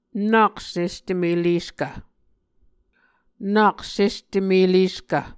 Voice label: "nak sestemeleskv", Muscogee